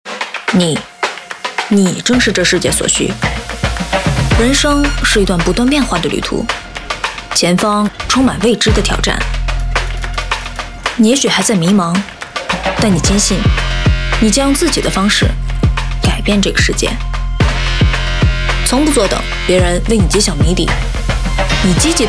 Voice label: Mandarin China Commercial; UNSW Sydney